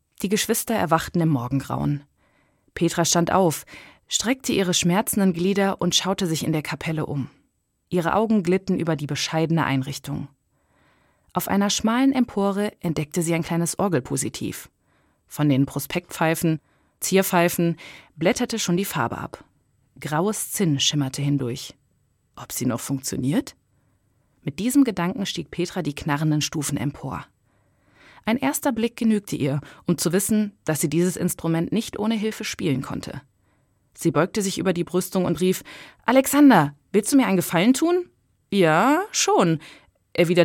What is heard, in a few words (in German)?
Hoerprobe Hoerbuch